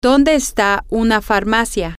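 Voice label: where is a pharmacy drug store, Spanish, female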